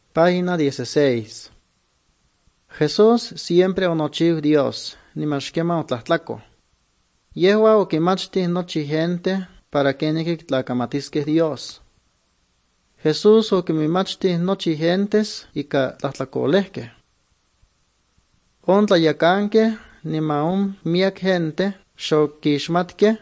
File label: good news, nahuatl guerrero chilapa; bible; guerrero nahuatl the bible league nt drama